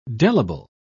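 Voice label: "delible", British English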